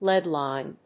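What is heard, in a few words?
"lead line", English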